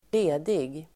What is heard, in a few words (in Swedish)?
"ledig", Swedish